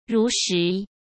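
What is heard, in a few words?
"如实", Mandarin Chinese; hsk; chinese flashcards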